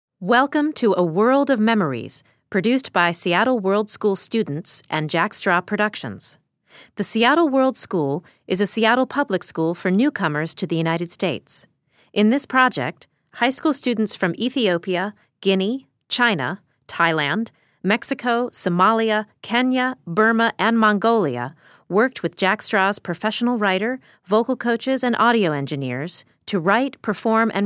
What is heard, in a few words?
Opening Narration